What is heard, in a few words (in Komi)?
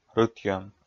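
"рытйӧм", Komi, male; kpv audio dict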